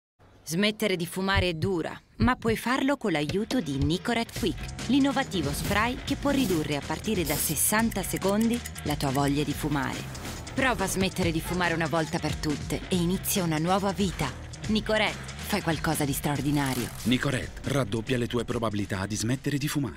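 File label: e-learning, Italian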